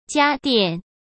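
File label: "家电", Mandarin Chinese; chinese-flashcards; hsk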